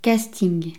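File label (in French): "casting", French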